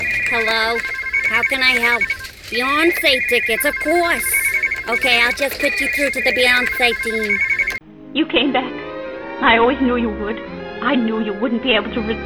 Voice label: character reel

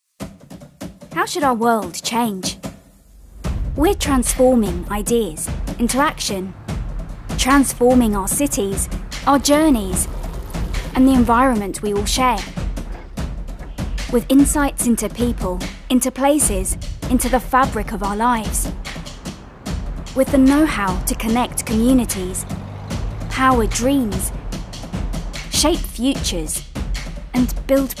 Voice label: corporate

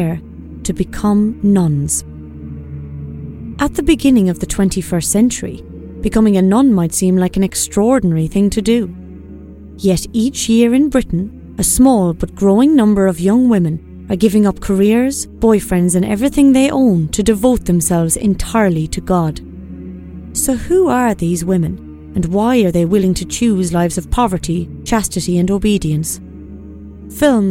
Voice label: documentary read

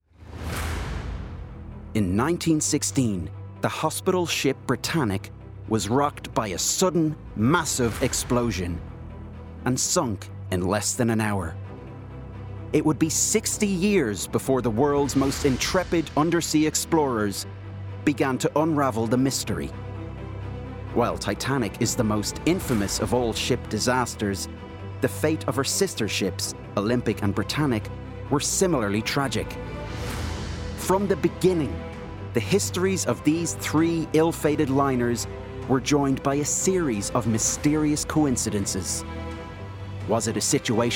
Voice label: narration continuity

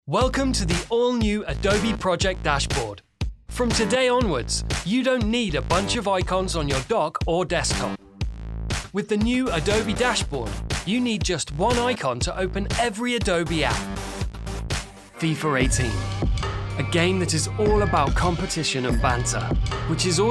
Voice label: corporate voice

